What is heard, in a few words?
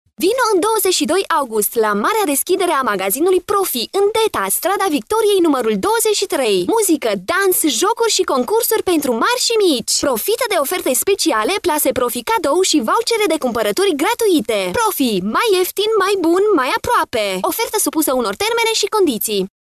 female romanian voice over sales